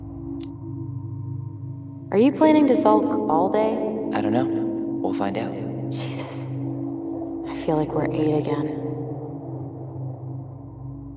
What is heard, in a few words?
conversation voice